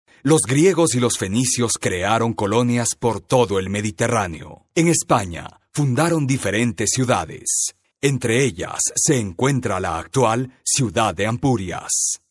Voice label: locutor ecuatoriano ecuadorian voiceover; locutor de Ecuador